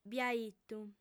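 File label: "biaitu", female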